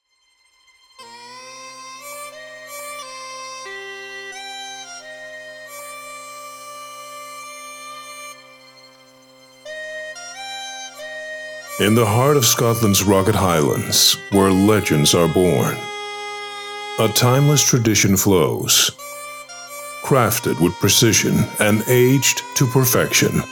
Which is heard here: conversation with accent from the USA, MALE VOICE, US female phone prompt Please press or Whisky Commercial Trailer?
Whisky Commercial Trailer